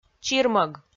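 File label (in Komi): "чирмӧг", Komi, female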